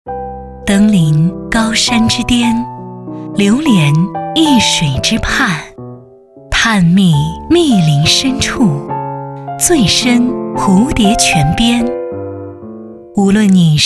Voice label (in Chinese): 电台, 抒情; 音乐桃花源